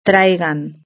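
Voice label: "traigan", Spanish; verb-conjugator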